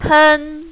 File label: "pen1", mandarin, female